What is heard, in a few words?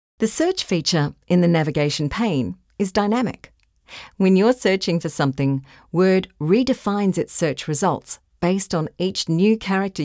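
E Learning Software Training, female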